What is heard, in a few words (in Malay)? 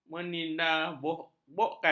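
"meninabobokkan", Malay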